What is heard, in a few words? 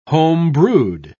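"home-brewed", British English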